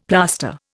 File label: "plaster", Indian; Dictionary